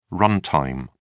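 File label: "run-time", English, British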